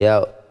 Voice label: "yo", voice